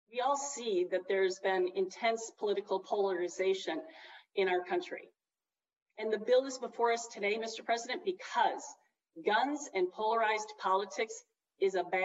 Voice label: Open Carry Floor Speech; Kuderer